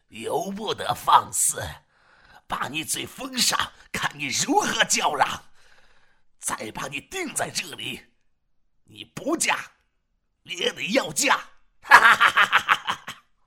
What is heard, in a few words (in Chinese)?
男 角色 阴森恐怖男